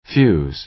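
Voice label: "fuse", American English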